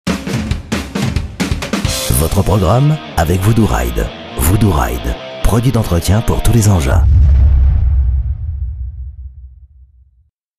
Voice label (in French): french tv shows